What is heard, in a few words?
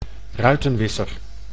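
"ruitenwisser", Dutch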